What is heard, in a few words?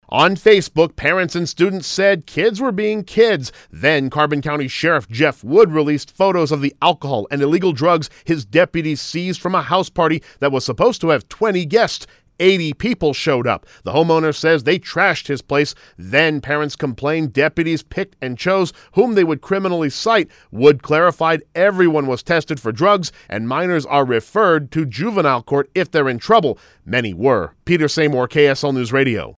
PRICE PARTY VOICE PSA